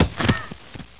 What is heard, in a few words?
fall pain, player; cstrike